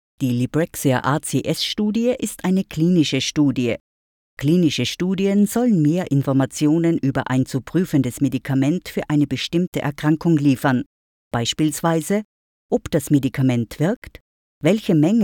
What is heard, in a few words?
german austrian medical narration